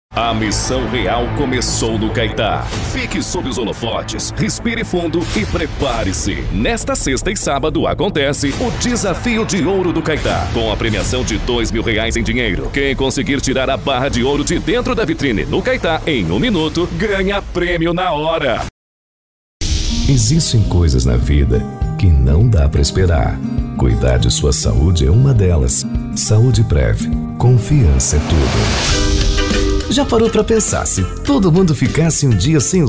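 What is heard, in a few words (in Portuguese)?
VOZES MASCULINAS, Padrão Institucional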